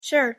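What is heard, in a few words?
"sure", English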